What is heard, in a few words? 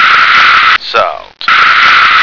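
"salt", American English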